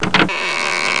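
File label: "trunk", English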